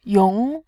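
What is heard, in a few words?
"yong", Mandarin Chinese, tone 2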